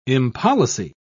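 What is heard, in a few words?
"impolicy", British English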